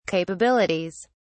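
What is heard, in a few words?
"capabilities", British English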